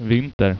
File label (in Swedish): "vinter", Swedish